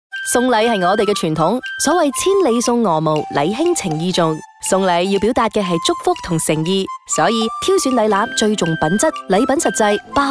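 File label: Cantonese Happy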